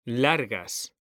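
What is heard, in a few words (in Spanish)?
"LARGAS", male; mekanta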